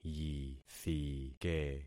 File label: "yithike", concat syllables; Affixing experiment